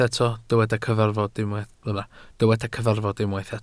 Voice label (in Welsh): "cyfarfod" Welsh